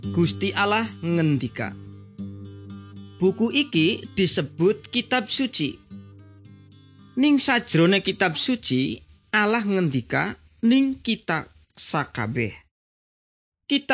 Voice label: good news jawa indramayu, javanese bible

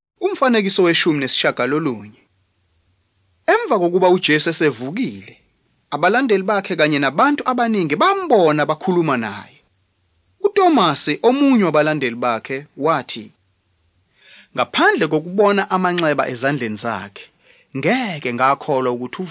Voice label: zulu bible; good news

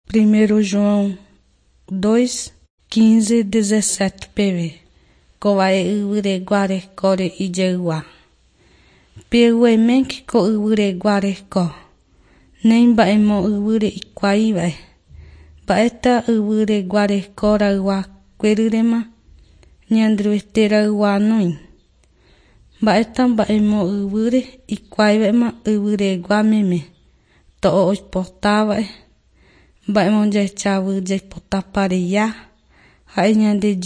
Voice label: john guarani mbya, bible

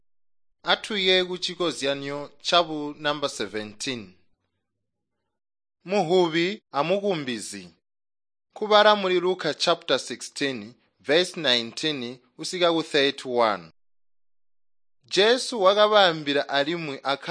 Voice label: tonga plateau, drama; chitonga bsz nt drama

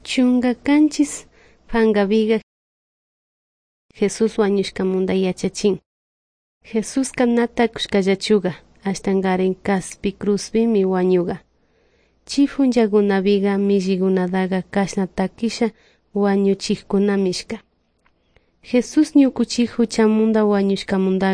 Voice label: good news quichua de salasaca

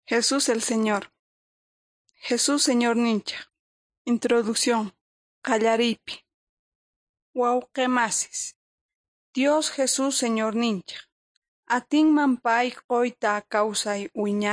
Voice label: words of life quechua oruro

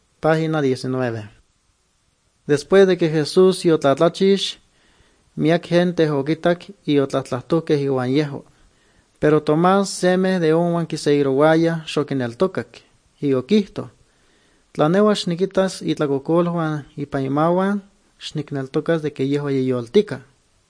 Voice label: good news, nahuatl guerrero chilapa

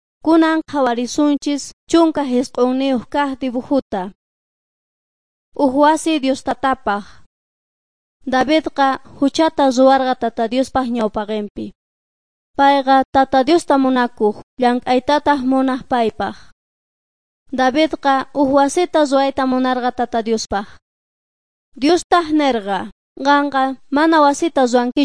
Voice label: quechua bolivian cochabamba; servants of god

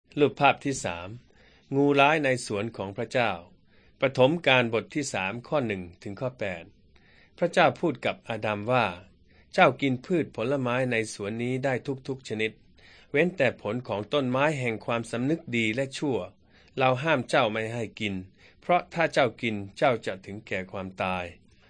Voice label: beginning with god thai central

